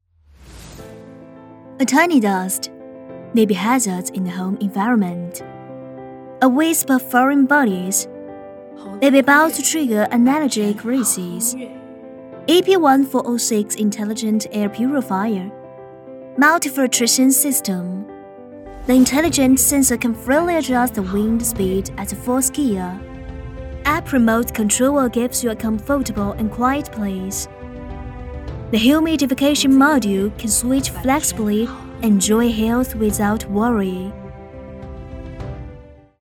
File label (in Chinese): 英文宣传科技产品